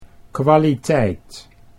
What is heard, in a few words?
"kwaliteit", Dutch